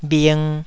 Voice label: "bhing2", taiwanese